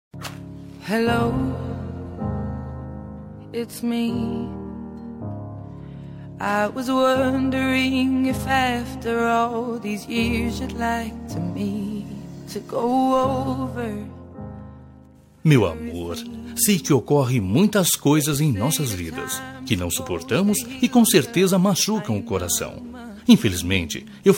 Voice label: dia dos namorados reconciliacao voz masculina

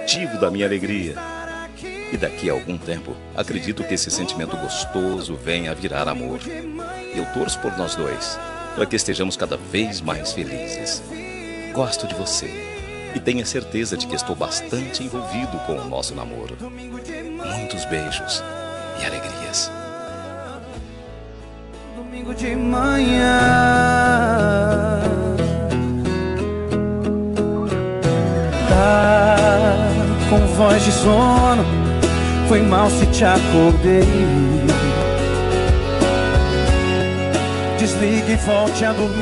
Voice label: dia dos namorados especial masculina; pessoa especial voz

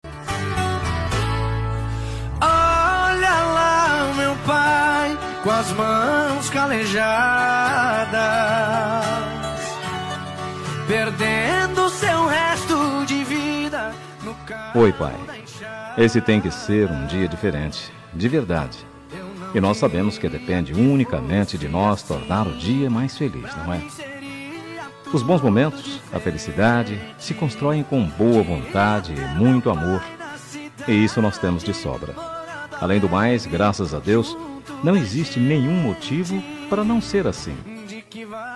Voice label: plural masc, voz masculina; Dia dos Pais

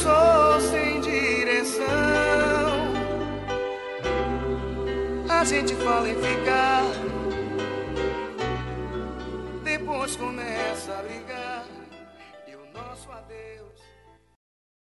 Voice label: pessoa especial masc

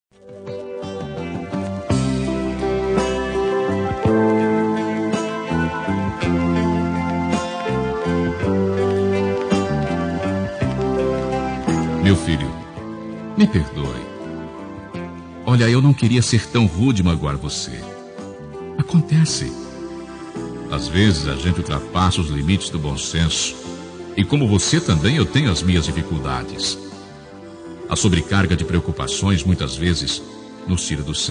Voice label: filho masc

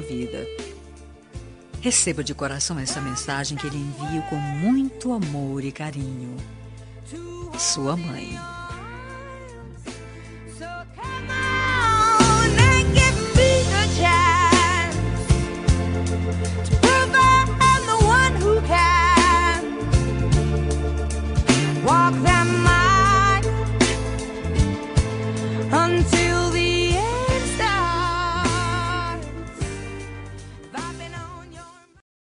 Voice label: Homenagem para Filho, Voz Feminina

